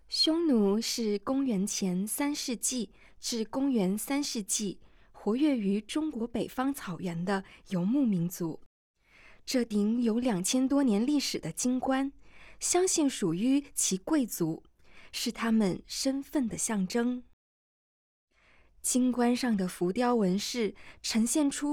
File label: Putonghua